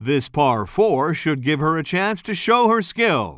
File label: commentator